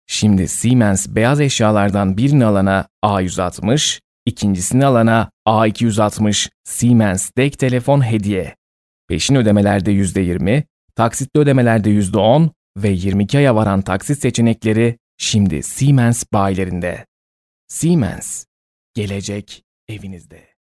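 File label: Turkish, male, e-learning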